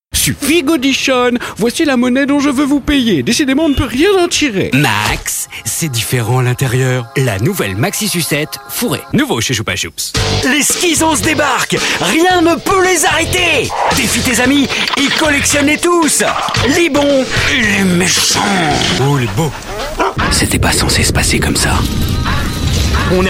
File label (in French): Voix Décalées Inclassables